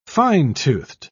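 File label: "fine-toothed", British English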